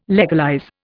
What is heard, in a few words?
"legalize", Indian